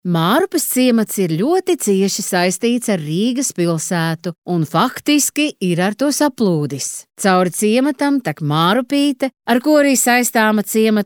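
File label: Latvian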